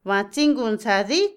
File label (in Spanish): "alumno", Otomí, mujer; Cognición; Vocabulary; INALI